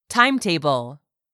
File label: "timetable", English; 3000 words